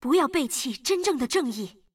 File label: zh-cn; taliyah